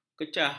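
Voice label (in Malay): "kecah", Malay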